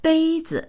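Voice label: "bei zi", Mandarin; 杯子; コップ、さかずき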